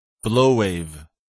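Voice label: "blow-wave", English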